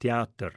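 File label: "teater" Sursilvan Romansh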